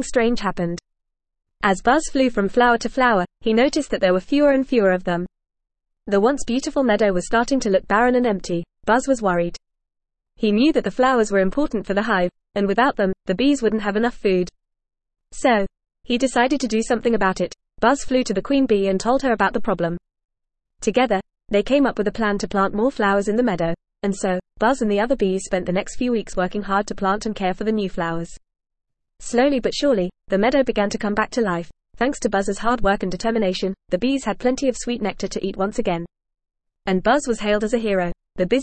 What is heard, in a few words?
ESL Short Stories for Kids FAST reading; The Busy Bee